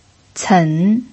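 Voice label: "cen", pinyin, third tone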